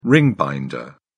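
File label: "ring binder", British English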